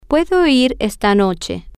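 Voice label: I can go tonight, Spanish, female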